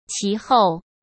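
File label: "其后", Mandarin Chinese; chinese flashcards; hsk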